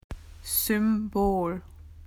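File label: "symbol", Australian English; PictureDictionary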